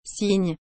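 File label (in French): "cygne", French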